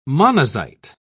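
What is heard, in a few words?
"monazite", British English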